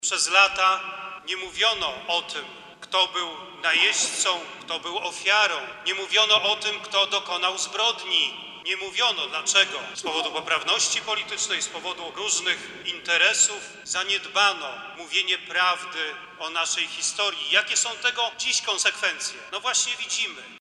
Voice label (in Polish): narracja